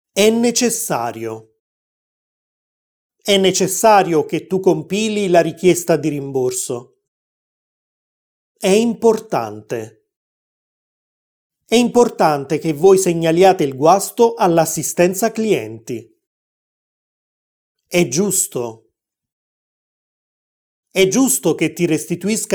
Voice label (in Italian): Easy Italian Altre espressioni impersonali; Italianglot; Easy Italian Pack